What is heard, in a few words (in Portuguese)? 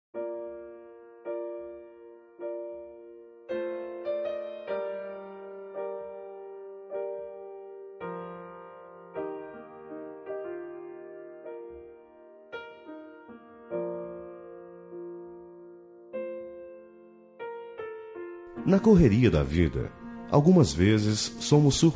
cunhada masc, Voz Masculino; Telemensagens para todas as ocasiões